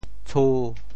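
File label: "tshu1", Teochew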